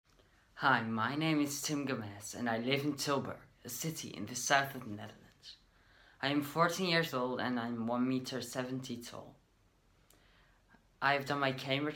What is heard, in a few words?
voice English